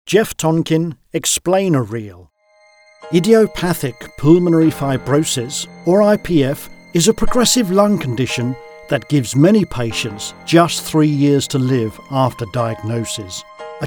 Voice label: Explainers

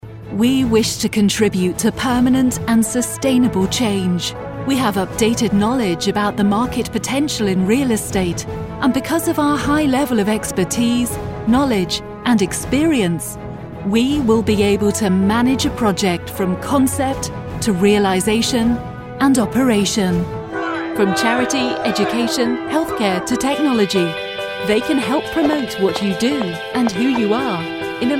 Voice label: Corporate Voiceover

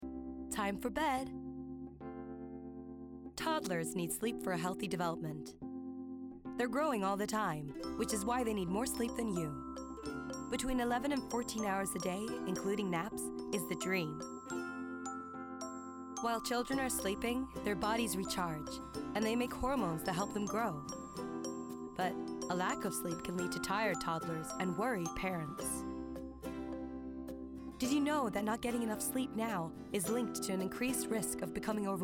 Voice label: Gen American; Nestle

